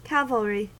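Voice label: "cavalry", US English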